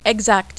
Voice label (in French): "exact", French